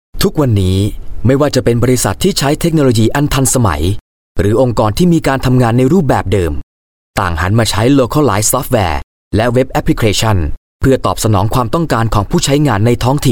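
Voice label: Thai Male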